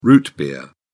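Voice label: "root beer", English, British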